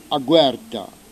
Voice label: "aquarta", lingua corsa

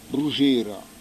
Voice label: "brusgera", lingua corsa

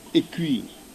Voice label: "qui", lingua corsa

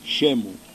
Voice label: "scemu", lingua corsa

